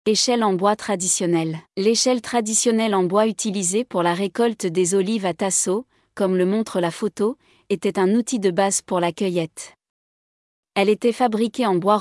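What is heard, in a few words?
french, Audio guided tour; Σκάλα; Elle incarne l’héritage agricole et le savoir-faire artisanal de l’île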